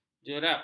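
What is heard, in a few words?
"jerap", Malay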